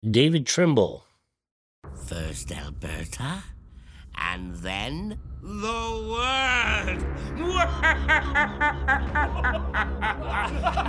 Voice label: Animation